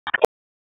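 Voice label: Police Law Enforcement Ops, p25; Raleigh; LE Ops 6; wake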